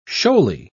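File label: "shoaly", British English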